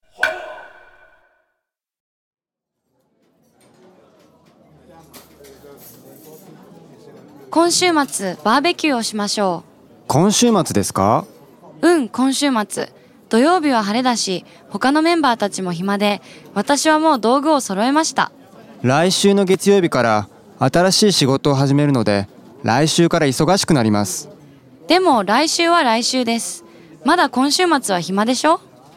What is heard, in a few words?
dialog; JapanesePod101; Beginner Season 1